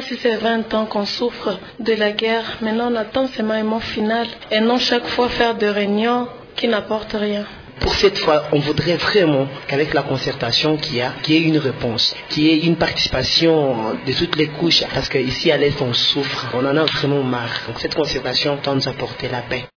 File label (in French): Vox pop Attente de la population